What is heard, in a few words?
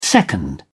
"second", English